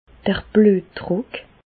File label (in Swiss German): "bluetdruck", Bas Rhin; hypertension; medecine